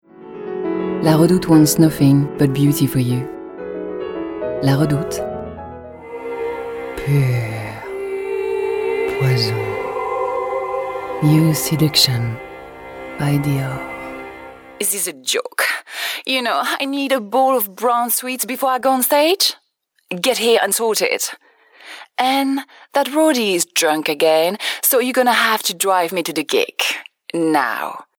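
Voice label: English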